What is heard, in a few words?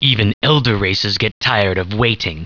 annoyed; war2; humans; elven archer; alliance